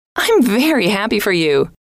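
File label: i am very happy for you, female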